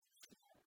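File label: "пышйӧ" Komi, female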